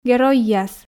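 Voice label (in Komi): "геройяс", Komi, female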